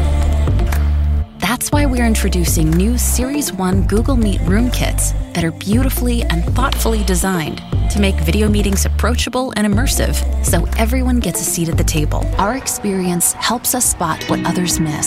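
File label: Narration, Female Voice Over; American Female Voice Actor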